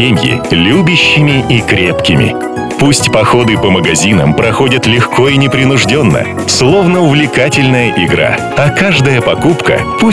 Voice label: Поздравление с 1 июня - День защиты детей мужской

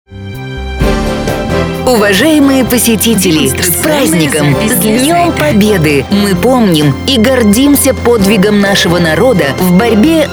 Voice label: Поздравление с 9 мая, женский; универсальное

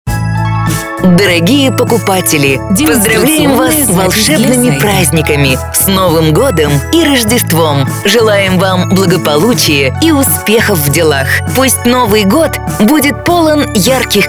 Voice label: Поздравление С Новым Годом, женский

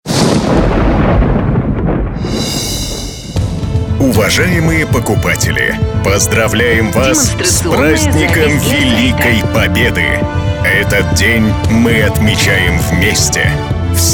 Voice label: Поздравление с 9 мая мужской; универсальное

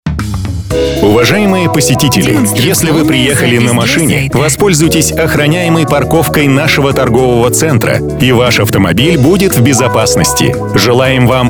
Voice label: Информация о парковке, мужской

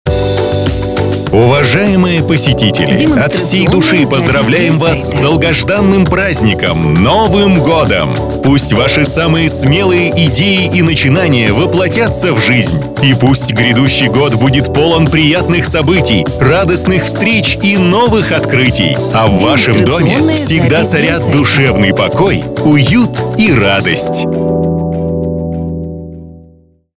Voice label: Поздравление С Новым Годом мужской универсальное

